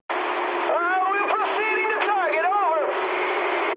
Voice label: eng chat, speech eng